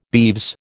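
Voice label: "beeves", American English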